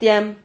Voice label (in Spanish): "tiam", Silabas